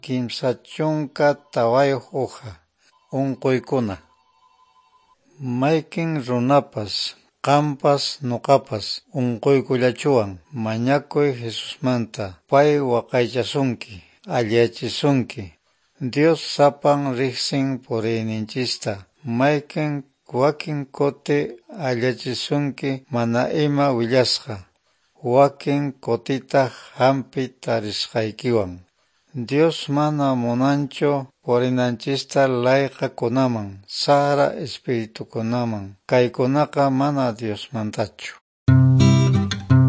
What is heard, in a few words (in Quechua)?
good news quechua norte de bolivia apolo